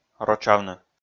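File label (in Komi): "рочавны", Komi, male; kpv audio dict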